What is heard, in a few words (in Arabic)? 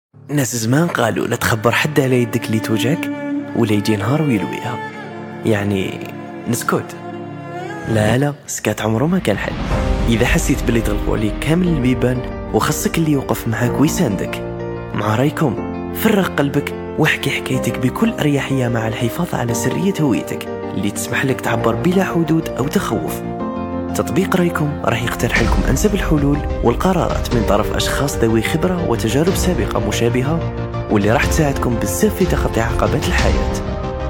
تعليق صوتي; documentary ads voiceover